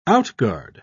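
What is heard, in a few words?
"outguard", British English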